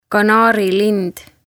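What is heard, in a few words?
"kanaarilind", Estonian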